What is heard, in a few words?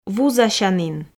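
"вузасянін", Komi, female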